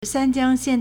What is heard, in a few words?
"san1jiang1xian4", Chinese